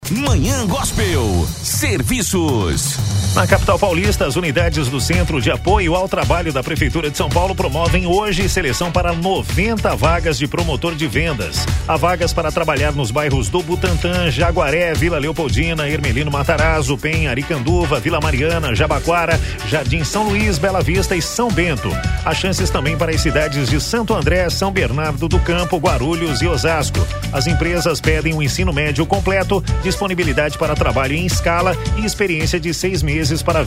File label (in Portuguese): BOLETIM; CAT PROMOTOR